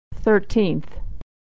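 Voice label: "thirteenth", American English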